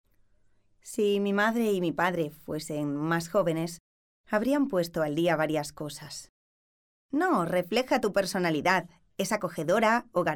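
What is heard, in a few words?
spanish female narration; voice demos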